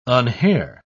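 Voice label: "unhair", British English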